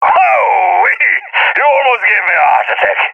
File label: close call, balkan; vo; agents